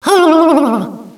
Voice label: hublblblbl, Echo inside caves, underground; DS; ML BOWSERSINSIDE; Mario